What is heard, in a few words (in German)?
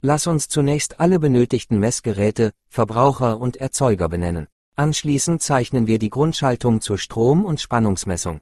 Hoerspiel, Elektro; Berufsausbildung